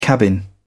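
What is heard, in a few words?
"cabin", British English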